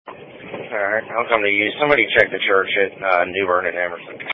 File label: Police Patrol 1 (Dispatch)